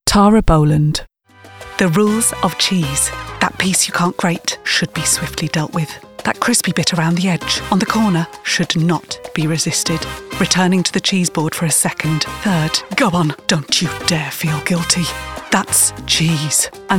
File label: COMMERCIAL VO